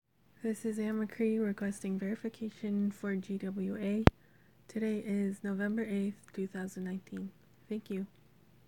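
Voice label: Female verification; GWA Verification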